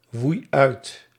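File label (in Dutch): "woei uit", Dutch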